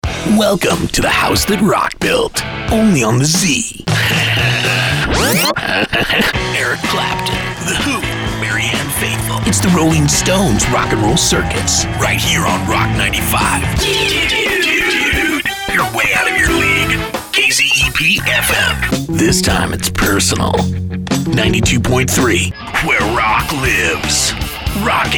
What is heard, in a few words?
Radio Image